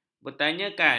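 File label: "bertanyakan", Malay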